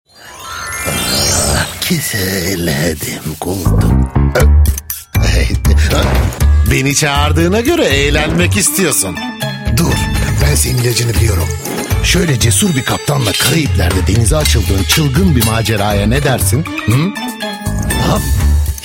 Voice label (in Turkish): reklam